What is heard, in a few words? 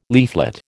"leaflet", American